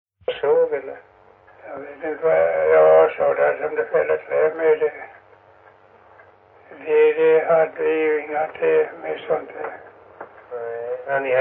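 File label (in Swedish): Stenåsa Öland, dialekter svenska sagor; Folkminnen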